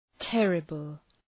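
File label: "terrible", English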